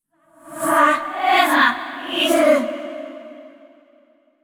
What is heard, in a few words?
Angry; VO Yamiko